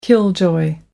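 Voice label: "killjoy", British English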